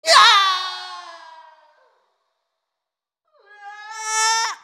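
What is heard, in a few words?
dying, English; Rumble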